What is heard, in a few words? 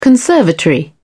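"conservatory", English, British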